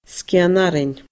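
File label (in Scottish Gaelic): "sgian arain", Scottish Gaelic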